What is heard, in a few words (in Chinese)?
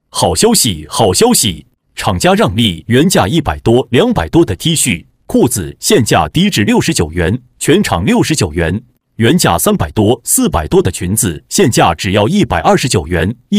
激情促销男; 厂家让利衣服裤子随便挑